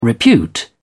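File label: "repute", British English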